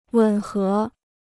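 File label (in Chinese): "吻合", Chinese; wěn hé; correspondre; s'adapter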